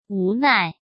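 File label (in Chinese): "无奈", Mandarin Chinese; chinese-flashcards; hsk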